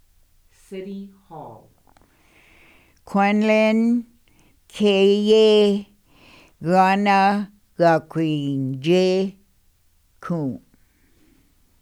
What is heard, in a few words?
City Hall, southern tutchone